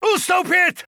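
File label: Czech retreat; 整队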